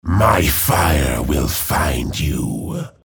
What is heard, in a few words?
chatter neutral